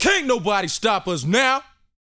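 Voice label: talk female, sci-fi; 5 SCI-FI CHZ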